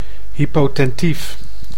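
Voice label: "hypotentief", Dutch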